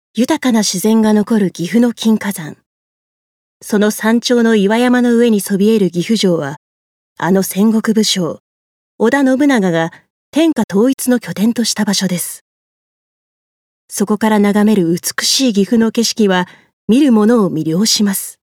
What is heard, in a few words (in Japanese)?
解説ナレ